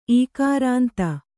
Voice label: "ಇಕಾರಾಂತ", Kannada